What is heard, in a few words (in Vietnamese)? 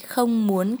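"Không muốn", tiếng việt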